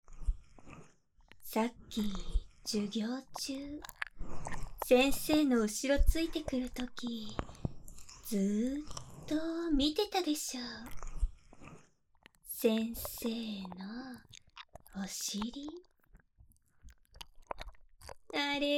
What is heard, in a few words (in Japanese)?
耳舐めされながら水中手コキ・乳首舐め・フェラされ、騎乗位Hする音声, ドスケベ女教師; ショタ好きドスケベ水着女教師のえっちな平泳ぎ〜更衣室でメスの匂いたっぷり下品な生ハメお射精教イク〜